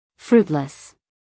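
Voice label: "fruitless", American English, female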